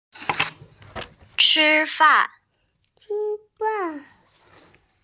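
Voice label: "chi fan", Mandarin Chinese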